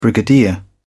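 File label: "brigadier", British English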